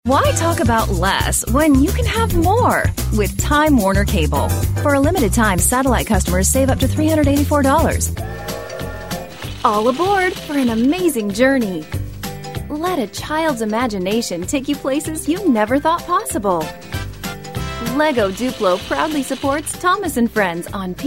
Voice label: happy, female voices for radio commercials

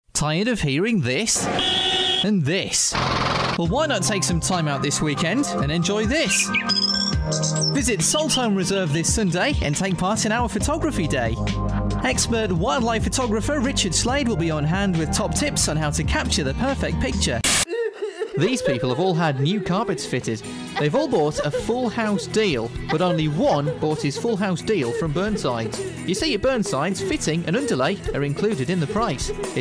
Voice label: happy, male voices for radio commercials

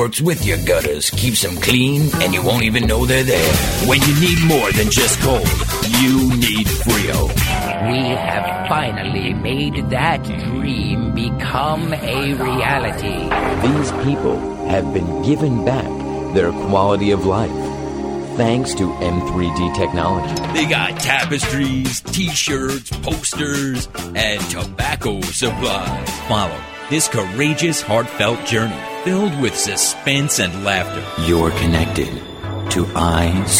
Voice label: movie, male voices for radio commercials

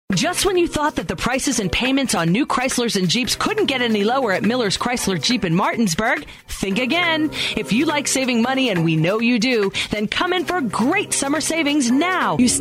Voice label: impact, female voices for radio commercials

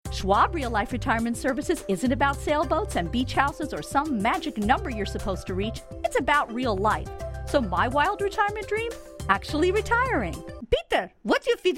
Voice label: happy, female voices for radio commercials

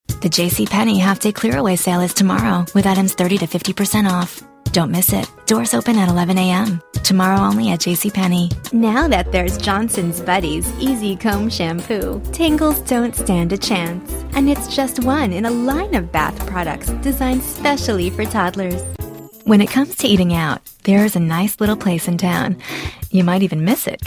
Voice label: alluring, female voices for radio commercials

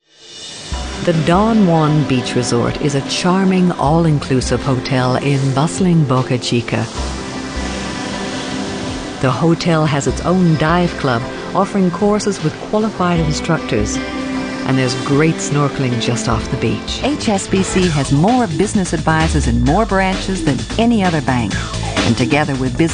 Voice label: mature, female voices for radio commercials

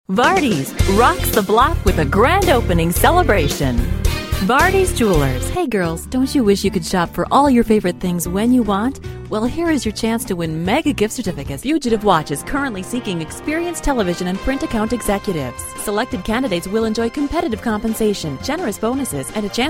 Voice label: warm, female voices for radio commercials

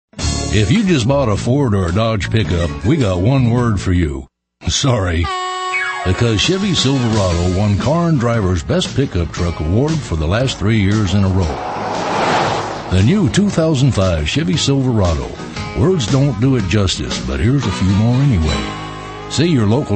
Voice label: wise, male voices for radio commercials

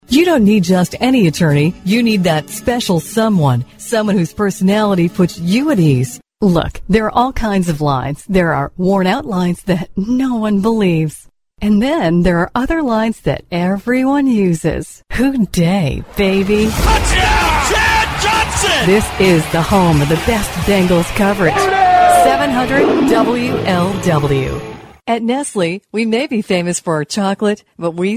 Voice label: happy, female voices for radio commercials

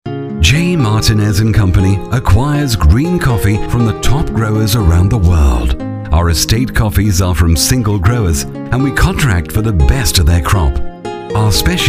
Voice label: warm, male voices for radio commercials

